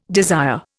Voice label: "desire", Indian